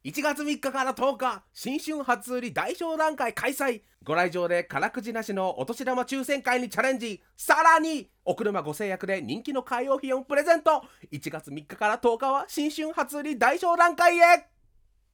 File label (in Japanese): カーディーラーCM バラエティ系; 鬼滅のカラス